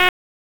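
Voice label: Police District Tactical, p25; Wake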